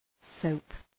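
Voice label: "soap", English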